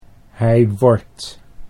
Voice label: hij wordt, Dutch